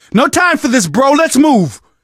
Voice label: revive friend loud, survivor voice; source engine; left 4 dead 2; manager